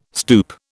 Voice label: "stoup", British